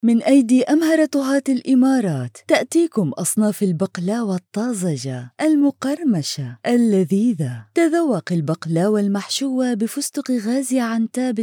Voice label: Arabic video games

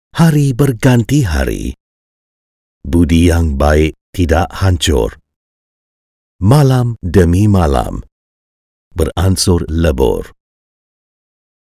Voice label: malay audiobooks

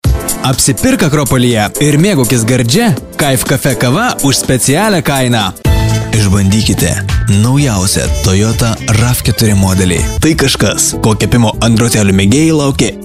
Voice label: lithuanian commercial; Litauisch; Litauen

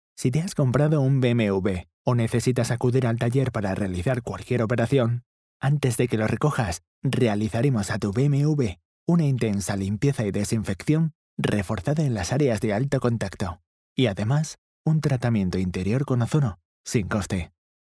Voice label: Spanish Castilian corporate

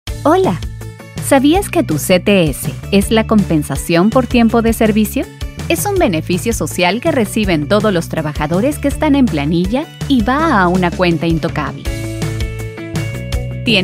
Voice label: Spanish Peruvian corporate videos

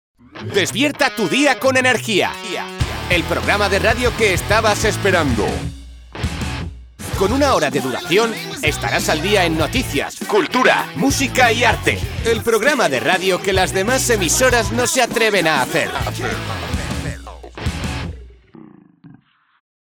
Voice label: spanish podcasts

